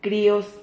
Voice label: "crios", Silabas

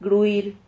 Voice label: "gruir", Silabas

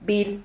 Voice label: "vil", Silabas

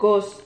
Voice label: "cos", Silabas

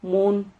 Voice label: "mun", Silabas

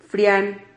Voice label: "frian", Silabas

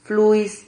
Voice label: "fluis", Silabas

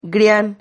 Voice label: "grian", Silabas

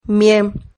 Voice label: "mie", Silabas

